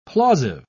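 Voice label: "plausive", British English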